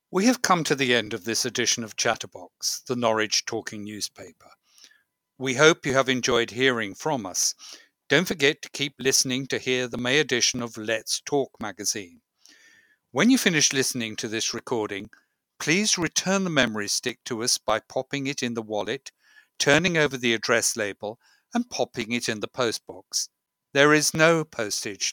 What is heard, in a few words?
Closing Announcement